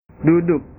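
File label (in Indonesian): "duduk", Indonesian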